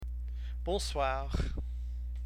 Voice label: greeting, french